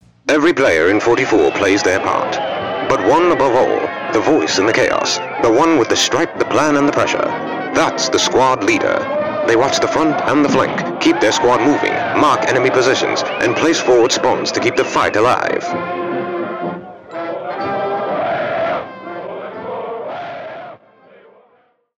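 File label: Military Tribute, Australian English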